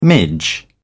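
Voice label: "midge", British English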